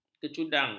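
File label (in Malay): "kecundang", Malay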